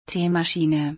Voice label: Tea maker in German